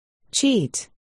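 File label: "cheat", spoken word, articulation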